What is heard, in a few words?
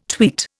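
"tweet", Indian English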